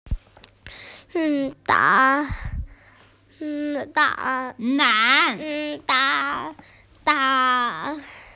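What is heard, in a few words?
"nan2", Mandarin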